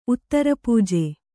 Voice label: "ಉತ್ತರಪೂಜೆ", Kannada

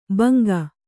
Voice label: "ಭುಂಗಾ", Kannada

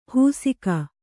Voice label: "ಹುಸಿಕ", kannada

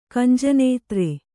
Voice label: "ಕಂಜನೇತ್ರ", kannada

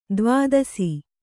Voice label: "ದ್ವಾದಶ", Kannada

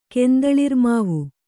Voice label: "ಕೆಂದಳಿರ್ಮಾವು", Kannada

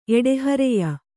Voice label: "ಎಡೆಹರೆಯ", Kannada

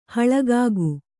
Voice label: "ಹಳಗಾಗು", Kannada; haḷagāgu; to be slighted

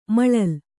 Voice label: "ಮಲ್ಲಲಿ", kannada

